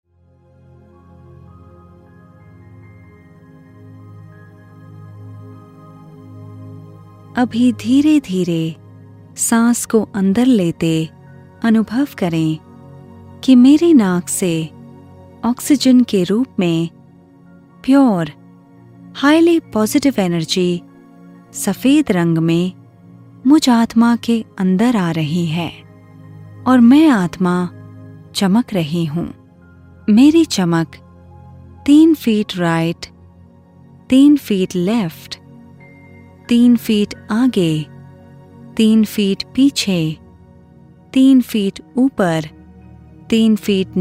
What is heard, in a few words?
Breathing Practice, Hindi, Meditation; SoulConsciousness